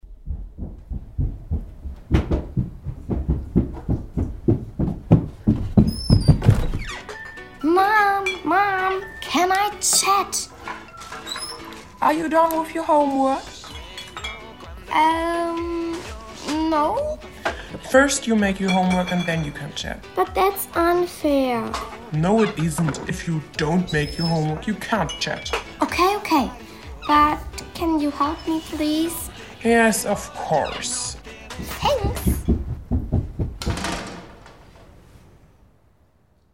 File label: English Dialogue, English; englisch